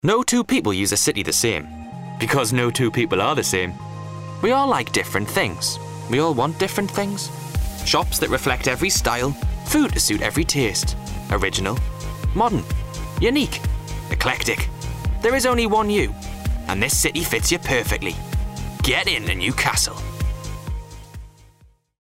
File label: Newcastle